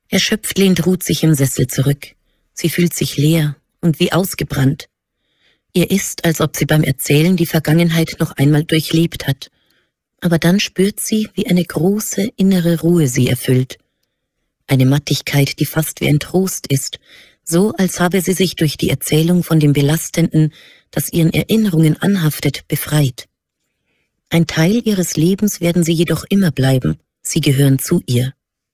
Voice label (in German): Frau mono